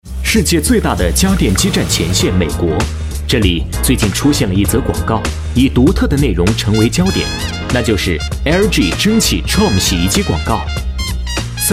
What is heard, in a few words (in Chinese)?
男 专题 轻松悠扬, 国语男声配音, 浑厚; LG滚筒